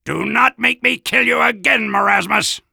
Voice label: Soldier taunts

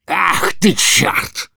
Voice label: Demoman negative vocalization, ru

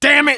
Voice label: Engineer negative vocalization

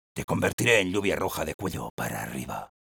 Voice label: taunts es; Sniper

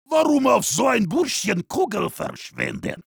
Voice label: heavy melee dare, de

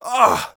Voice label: negative vocalization, de; Awwww!; Scout

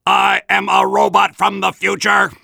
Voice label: Soldier robot

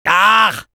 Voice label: Medic negative vocalization, fr

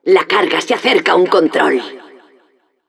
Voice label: announcer cart defender warning, es

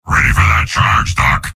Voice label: Soldier activate charge; Soldier Robot audio responses

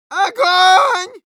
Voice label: auto on fire, ru; Medic

